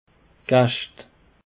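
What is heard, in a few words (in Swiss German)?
"gaescht", Bas Rhin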